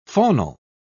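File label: "faunal", British English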